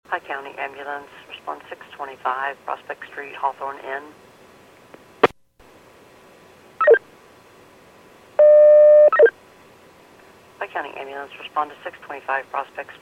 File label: PAGING